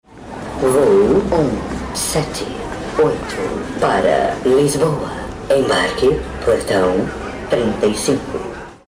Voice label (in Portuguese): logotipo sonoro voz da marca; infraero